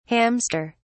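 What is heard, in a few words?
"hamster(s)", British English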